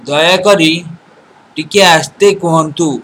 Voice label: slowly, odia

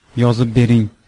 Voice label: write, uzbek

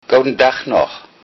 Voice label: have a nice day, low saxon

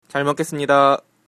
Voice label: bon appetit, korean

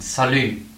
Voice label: hello, luxembourgish; Salut; Moien Salut Moin Hallo

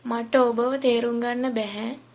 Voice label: no understand, sinhala

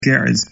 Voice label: geez; ግዕዝ

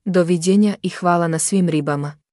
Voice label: so long, Serbian

